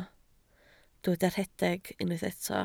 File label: "rhedeg", Welsh